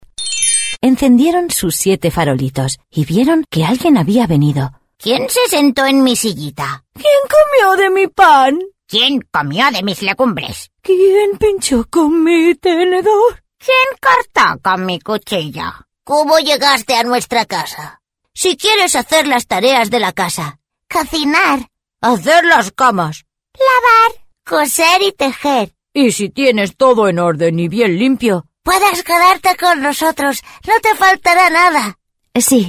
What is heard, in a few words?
spanish animation